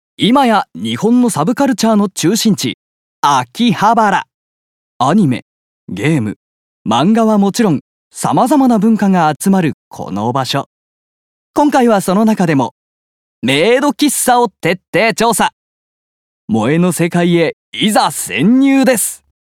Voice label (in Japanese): お店紹介番組ナレ